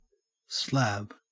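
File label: "slab" English, Australian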